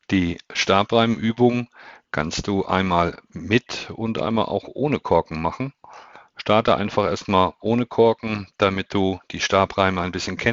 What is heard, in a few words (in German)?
stimmtraining stabreime; die macht der stimme; kommunikation